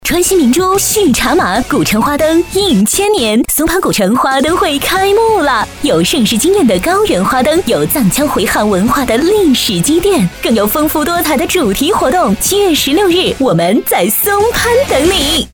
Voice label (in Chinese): 广告 旅游 花灯 轻快